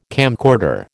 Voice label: "camcorder", American

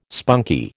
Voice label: "spunky", American

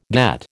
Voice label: "get", American

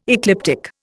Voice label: "ecliptic", Indian

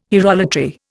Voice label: "hierolatry", Indian

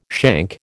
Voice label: "shank", American

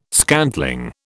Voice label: "scantling", British

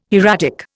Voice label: "hieratic", Indian

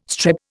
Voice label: "strep", Indian

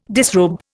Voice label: "disrobe", Indian

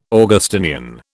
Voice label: "Augustinian", British

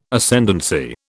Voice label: "Ascendancy", British

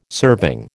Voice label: "serving", American

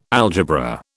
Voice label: "algebra", British

